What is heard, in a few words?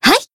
Voice attack jp; rosetta